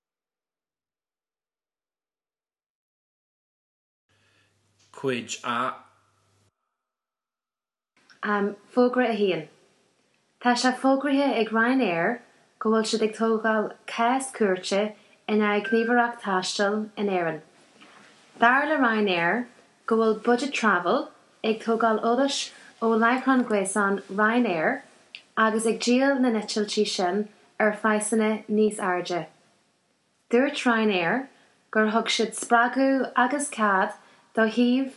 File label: irish northern dialect sample